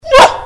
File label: "what", lakota